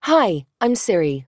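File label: British English; iOS Sounds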